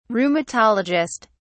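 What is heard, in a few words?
"rheumatologist", British English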